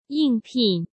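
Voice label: "应聘", Mandarin Chinese; hsk; chinese-flashcards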